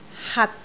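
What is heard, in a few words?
"hat6", Cantonese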